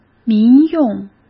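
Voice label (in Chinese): "民用", Mandarin Chinese; chinese-flashcards; hsk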